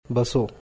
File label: "Basoo", Bhili; Sit; Basoo (to sit)